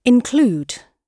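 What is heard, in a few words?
"include", British English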